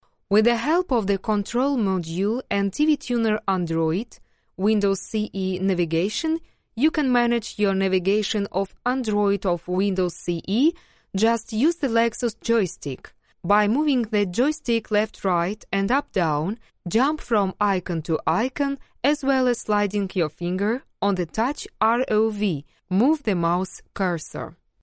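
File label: Russian English, female, corporate; accent of russia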